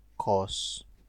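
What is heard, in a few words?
"cos", Nigerian Pidgin, male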